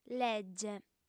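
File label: "lege", female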